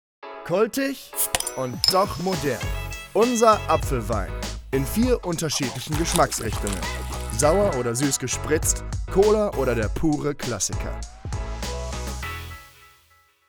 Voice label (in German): Sprechprobe Werbung